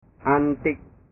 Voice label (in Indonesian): "antik", bahasa